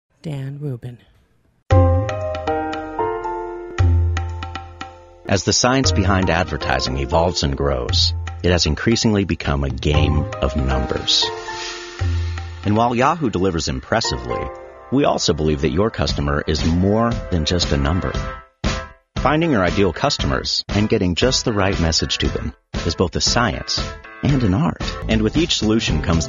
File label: male voice